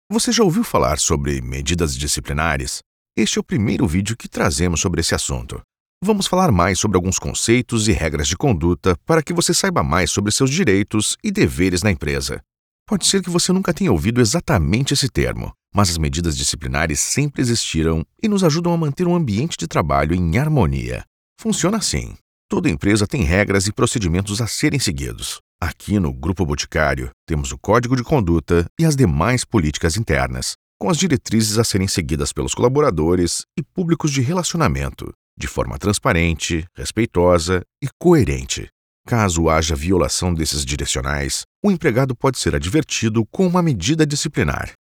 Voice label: Training Brazilian Portuguese; Internet Explanation Video Voice Overs

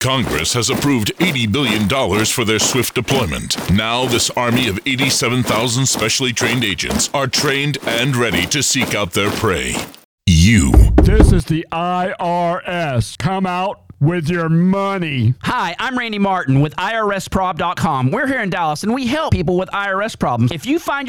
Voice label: RADIO SPOT

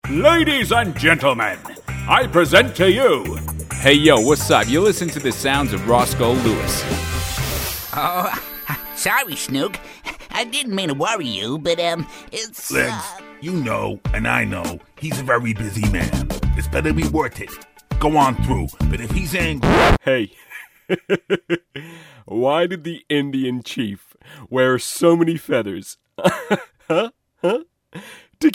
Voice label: BRITISH - MALE - CARTOONIES

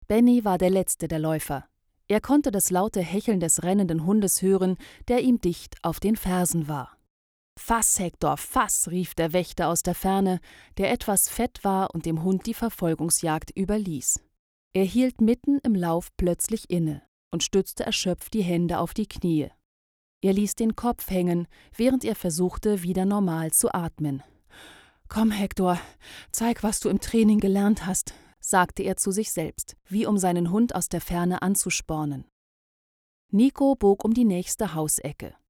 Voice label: audiobook short stories

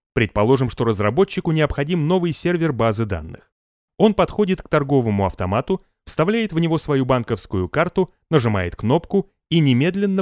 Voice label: Russian E-training

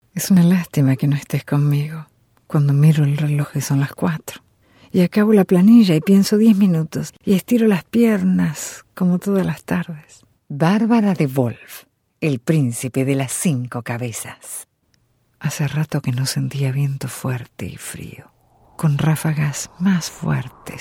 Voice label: Narration Medium Soft sell

